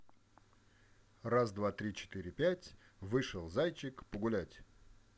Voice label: close not to mic